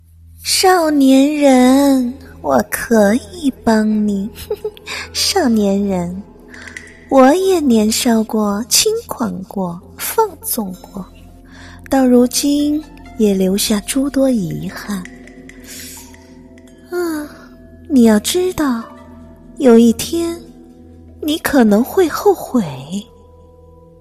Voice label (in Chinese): 动画片角色配音; 宝青坊主